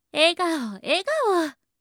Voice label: 応援ボイス

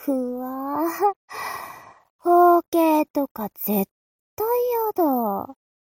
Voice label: mob 女子 台詞

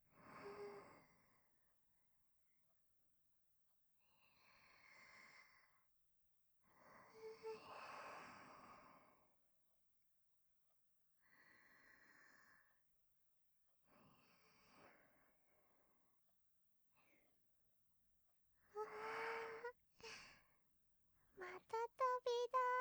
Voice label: 添い寝 クリアな音を求める方へ ノイズ除去版

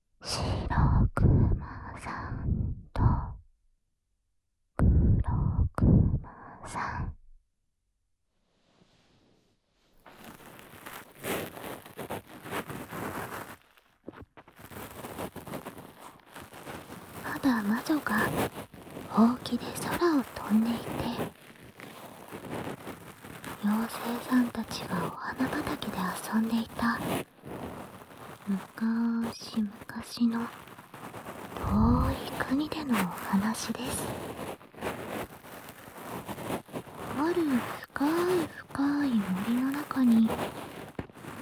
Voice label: 耳かき朗読, ASMR; シロクマとクロクマさん

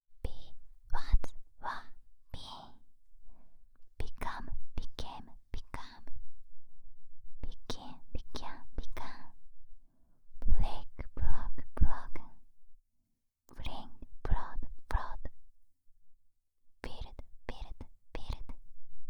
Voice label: 不規則動詞 リスニング音源 ～囁き英単語ASMR～

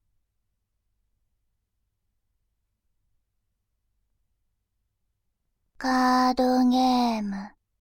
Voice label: カードゲーム, バイノーラル左, ASMR

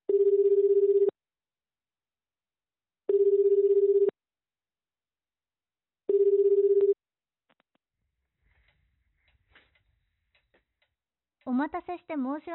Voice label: 予約電話, ASMR

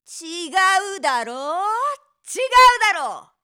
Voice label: ちがうだろ～ちがうだろ！, 怒鳴る女・怒る女

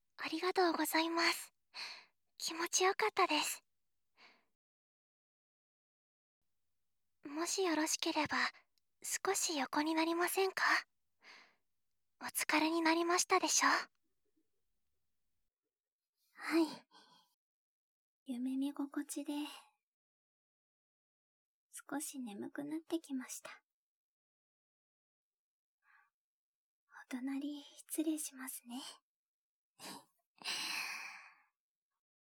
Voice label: 添い寝, BGMなし; 電車音-BGMなし

